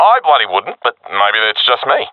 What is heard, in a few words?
disagree, professional; vo; Counter Strike Model Pack; CS:GO